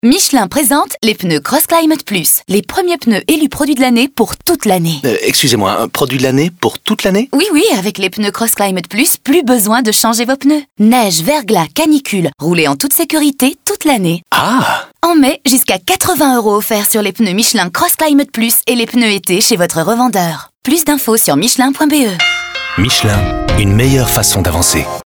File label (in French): Radio Dialogue Produit; Michelin; FR